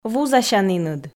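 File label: "вузасяніныд", Komi, female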